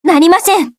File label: serious